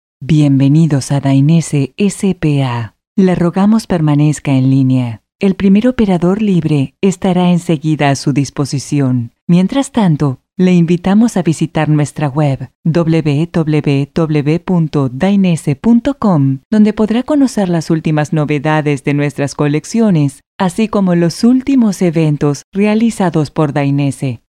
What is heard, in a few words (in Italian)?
spagnolo Femminile